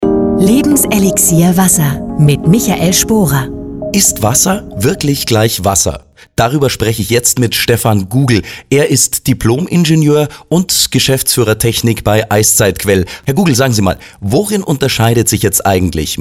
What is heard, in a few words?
Infomercial; mineralwasser